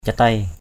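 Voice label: "catei", Cham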